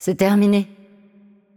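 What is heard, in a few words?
voice over, French; Game Outro; bloom minigame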